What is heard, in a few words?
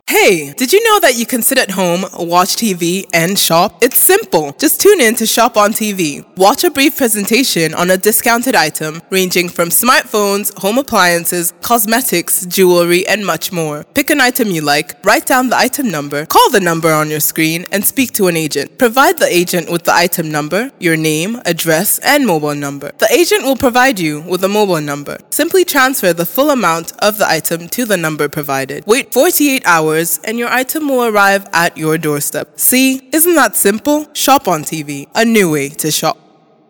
ADVERT